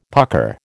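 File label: "pucker", American English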